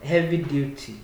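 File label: "heavy duty", Nigerian Pidgin, male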